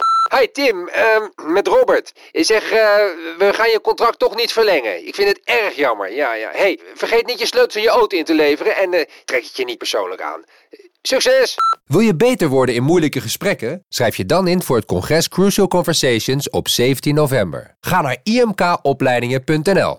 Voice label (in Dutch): Voicemail Ontslag; IMK Opleidingen